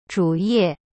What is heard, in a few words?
"主页", Chinese; zhu ye